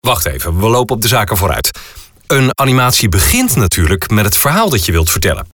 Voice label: dutch animation